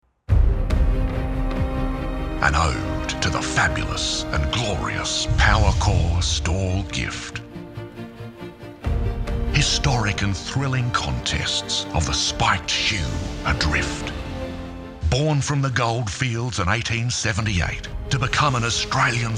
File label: PROMO, Voice; STAWELL GIFT